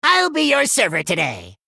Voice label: male gnome greetings

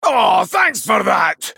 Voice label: male dwarf thanks

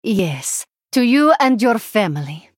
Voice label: female draenei mirror greetings

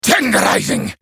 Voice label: male dwarf attack

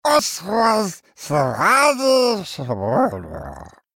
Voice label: male murloc thanks

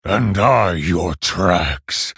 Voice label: male orc ogre start

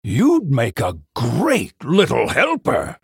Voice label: male human well played

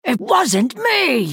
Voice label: female night elf attack

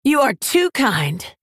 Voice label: female orc thanks

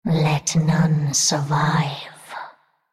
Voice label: female naga thinking

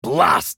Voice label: male dwarf oops

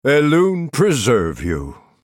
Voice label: male night elf thanks

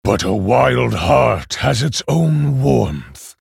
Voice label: male orc ogre mirror start

